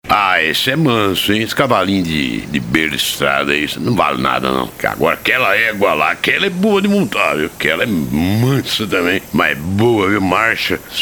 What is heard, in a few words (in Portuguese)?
Mix Sotaques